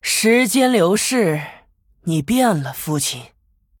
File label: Female Human Start, zh; Deathwing